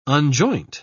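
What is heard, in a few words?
"unjoint", English, British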